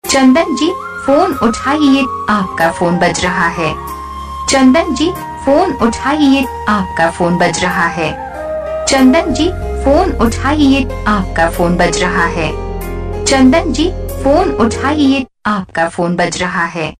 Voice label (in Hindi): PHONE UTHAIYE AAPKA PHONE BAJ RAHA HAI saying